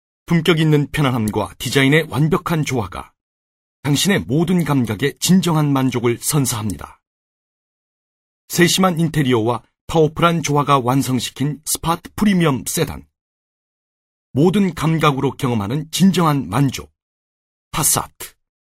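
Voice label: Korean male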